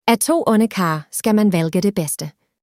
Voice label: Danish